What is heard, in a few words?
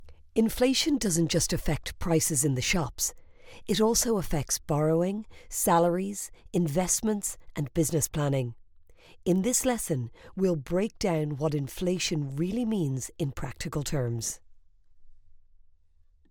Financial, E-Learning, Finance, Irish female voiceover